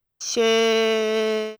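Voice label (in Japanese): "しぇ", Japanese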